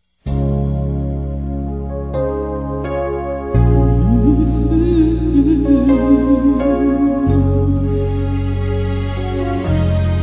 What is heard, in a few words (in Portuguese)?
Natal Irmao Feminino; Telemensagens; Natal e Ano novo